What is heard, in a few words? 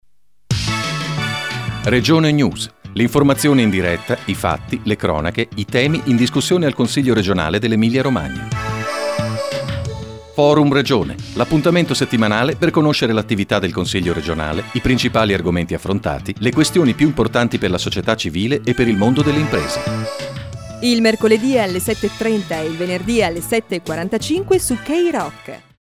spot